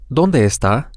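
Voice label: Spanish, where is are, male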